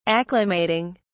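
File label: "acclimating", British English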